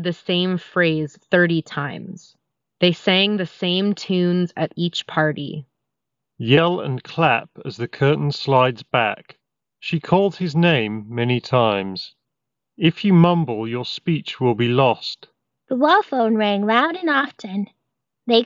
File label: Ideal microphone sample; Anker Soundcore Liberty 3 Pro